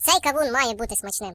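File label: "Melon", British; hedgewars; Default uk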